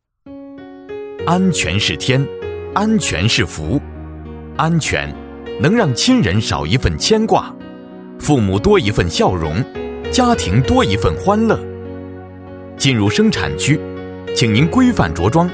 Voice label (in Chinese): 男 课件 安全播报培训